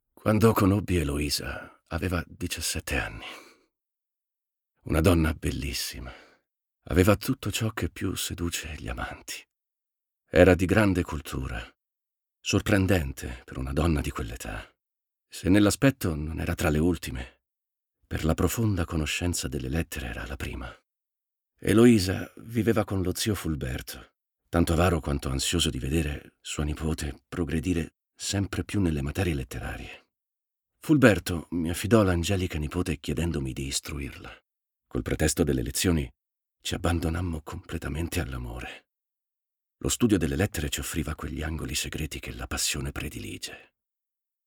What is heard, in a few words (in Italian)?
Narrazione, ITALIAN MALE; narration